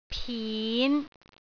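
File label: "pin5", Cantonese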